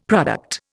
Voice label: "product", Indian; Dictionary